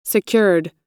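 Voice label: "secured", US English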